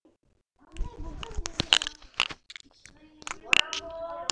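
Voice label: "ring", spoken word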